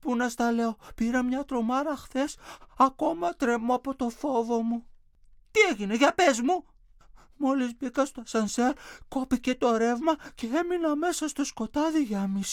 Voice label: dialog